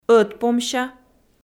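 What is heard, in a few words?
"ӧтпомся", Komi, female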